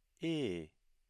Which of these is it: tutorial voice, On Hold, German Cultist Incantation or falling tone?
falling tone